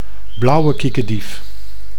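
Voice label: "blauwe kiekendief", Dutch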